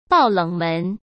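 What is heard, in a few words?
"爆冷门", Mandarin Chinese; chinese-flashcards; hsk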